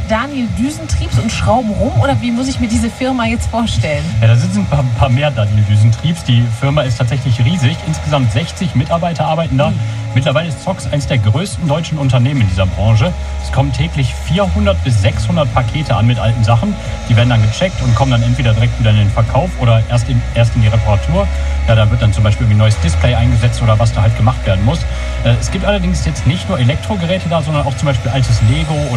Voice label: Radio